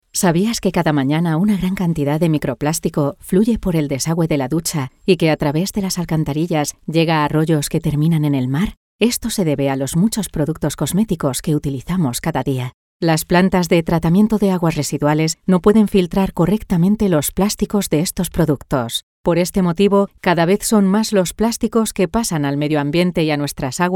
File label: docu